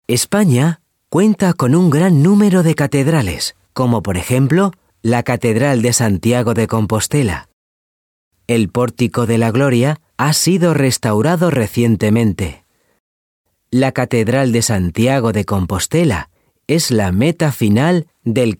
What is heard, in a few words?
Spanish Castilian audiobooks